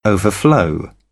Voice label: "overflow", British; AmericanBritish